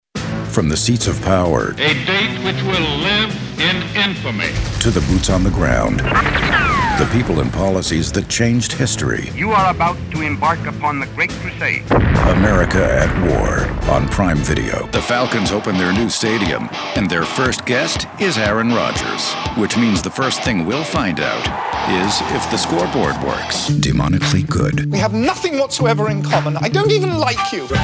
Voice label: Promo